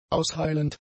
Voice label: "ausheilend", German; adjectives